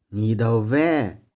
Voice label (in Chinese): "ni1dou3vae6", 玉環